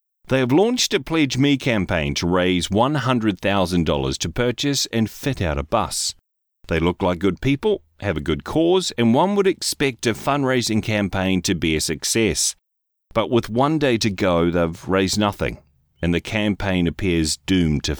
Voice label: English New Zealand commercial